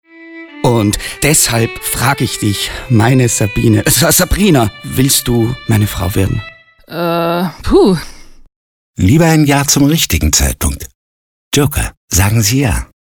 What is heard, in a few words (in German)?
Hörfunk; Antrag